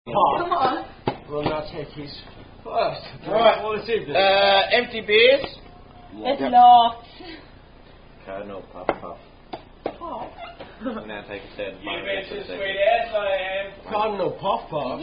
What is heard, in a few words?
Australian Are You A Cardinal Drinking Game; Cardinal Puff